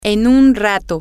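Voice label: in a while, Spanish, female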